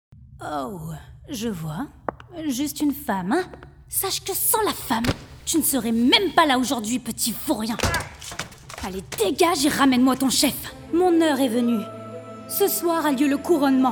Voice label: Animation demo